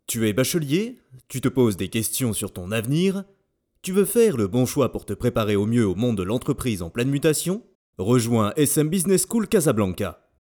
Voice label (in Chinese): 法语男大气宣传片